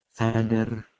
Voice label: "fader", Proto-Germanic

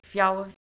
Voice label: "feower", Anglo Saxon

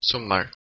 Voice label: "sumar", Proto Germanic

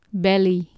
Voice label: "belig" Anglo Saxon

